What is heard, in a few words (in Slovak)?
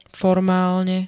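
"formálne", Slovak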